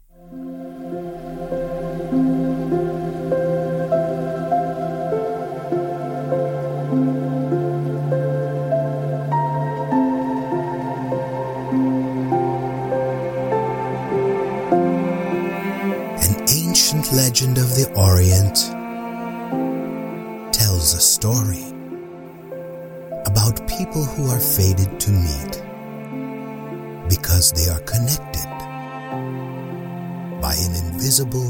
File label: english american audiobooks